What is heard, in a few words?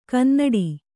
"ಕನ್ನುಡಿ", kannada